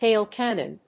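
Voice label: "Hail cannon", English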